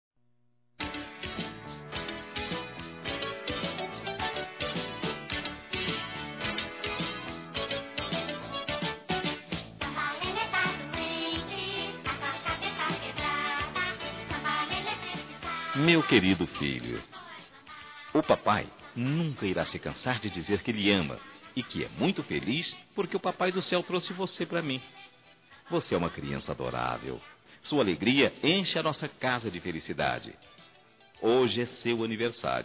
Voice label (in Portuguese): Masculino, Telemensagens Aniversários; Filho Criança; Telemensagens 2017; Aniv Familiar